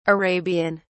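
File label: "arabian", British English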